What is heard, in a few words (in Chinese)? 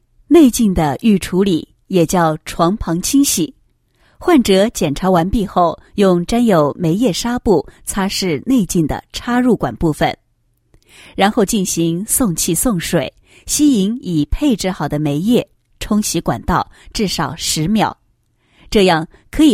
女 解说专题, 清洗消毒内镜步骤解说